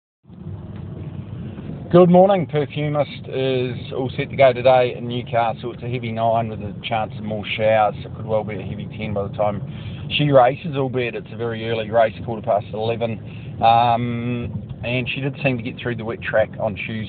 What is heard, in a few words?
voice note; Perfumist NZ